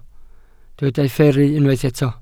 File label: "fferru", Welsh